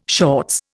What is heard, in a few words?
"shorts", Indian